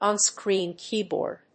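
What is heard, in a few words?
"onscreen keyboard", English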